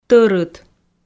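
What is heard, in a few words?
"тӧрыт", Komi, female; audio dict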